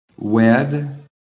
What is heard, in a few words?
"WED", american